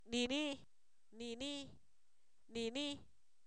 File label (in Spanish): "ese", Zapoteco; adverbios